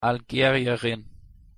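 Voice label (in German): "algerierin", German; pronunciation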